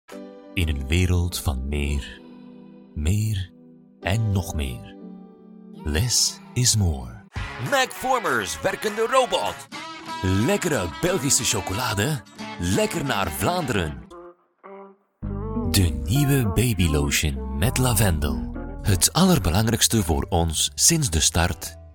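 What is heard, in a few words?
Dutch, General, Flemish; GoLocalise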